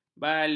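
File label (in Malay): "balik", Malay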